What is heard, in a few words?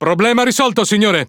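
It Speech Consumable Problem Solved, Italian; World Of Warships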